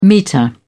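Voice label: "meter", English, British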